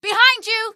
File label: behind you, female; Source Engine; video game; npc